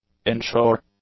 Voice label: "inshore", English; adjectives

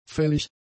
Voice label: "fällig", German; adjectives

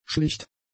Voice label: "schlicht", German; adjectives

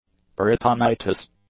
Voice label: "peritonitis", English; nouns

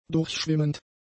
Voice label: "durchschwimmend", german; adjectives

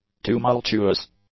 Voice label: "tumultuous", English; adjectives

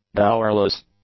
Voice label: "dowerless", English; adjectives

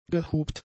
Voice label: "gehupt", German; adjectives

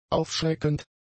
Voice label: "aufschreckend", German; adjectives

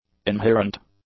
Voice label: "inherent", English; adjectives

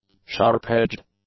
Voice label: "sharp-edged", English; adjectives

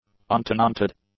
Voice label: "untenanted", english; adjectives

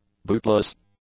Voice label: "bootless", English; adjectives

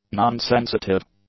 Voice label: "non-sensitive", english; adjectives

